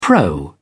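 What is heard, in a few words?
"pro", British English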